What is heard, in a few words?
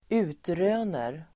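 "utröner", Swedish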